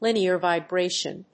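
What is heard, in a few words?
"linear vibration", English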